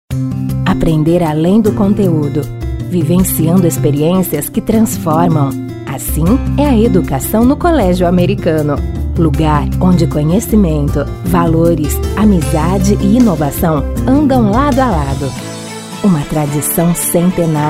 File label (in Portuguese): CLARA SUAVE